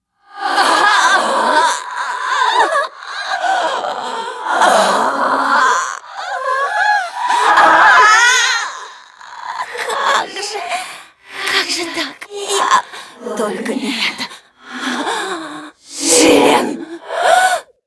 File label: cinematic voice, ru; lineage2